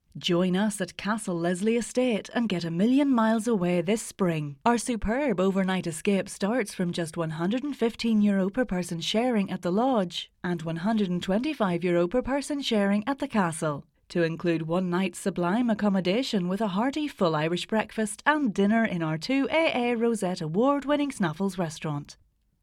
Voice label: On Hold